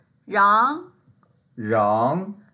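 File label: "rang2", Mandarin Chinese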